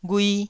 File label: "ghui1", Taiwanese syllable